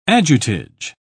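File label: "adjutage", British English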